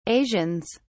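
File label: "asians", English, British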